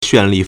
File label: "xuan4li4", Chinese